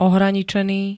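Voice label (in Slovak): "ohraničený", Slovak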